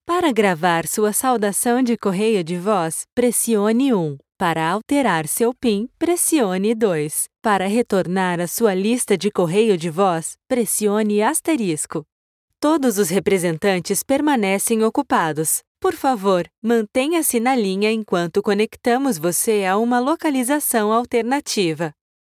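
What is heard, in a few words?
brazilian portuguese voiceover telephone, Female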